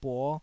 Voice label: "buo5", taiwanese syllables